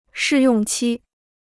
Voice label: "试用期", Chinese

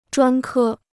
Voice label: "专科", Chinese

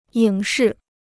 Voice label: "影视", Chinese

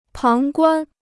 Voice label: "旁观", Chinese

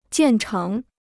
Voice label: "建成", Chinese

